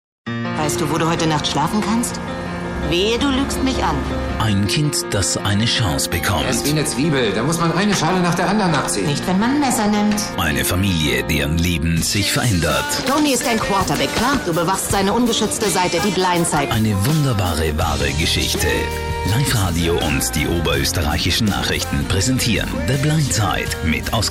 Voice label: german movie trailers